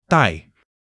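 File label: "dai4", Chinese; HSK3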